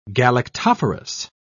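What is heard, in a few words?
"galactophorous", British English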